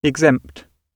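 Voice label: "exempt", British English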